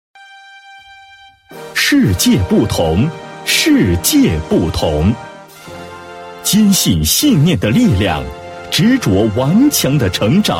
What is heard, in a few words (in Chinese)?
男 专题, 国语男声配音, 大气浑厚; 银记资产